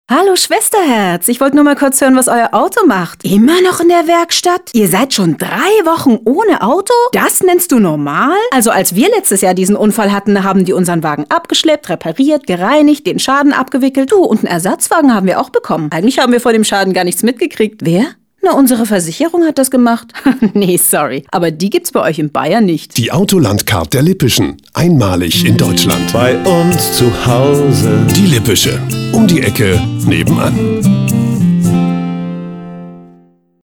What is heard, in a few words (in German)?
werbespot; lippische